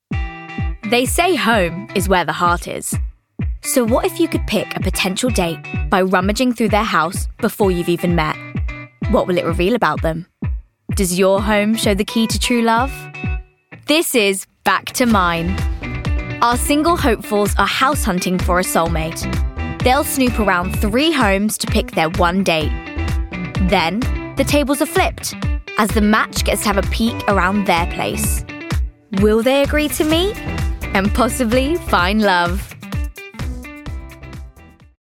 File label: Documentary, female